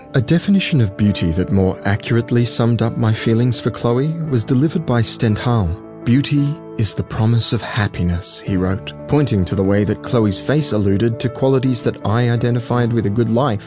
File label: Warm Cal